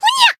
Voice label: attack jp; otoha